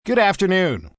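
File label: News Good Afternoon M, Broadcast, announcer, greetings, male; greetings/wrap-ups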